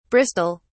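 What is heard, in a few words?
"bristol", British English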